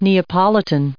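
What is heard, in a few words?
"neapolitan", English, us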